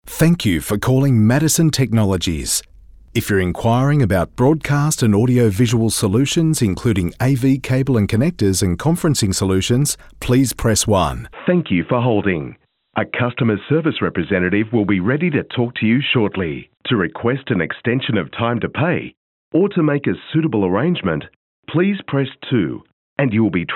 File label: IVR